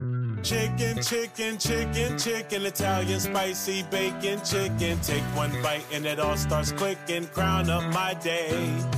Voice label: Chicken ad